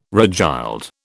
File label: "regild", British